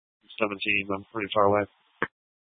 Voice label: Tempe PD, scanner; Rgnl Wireless Co-op (RWC)